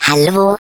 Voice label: turret deploy, de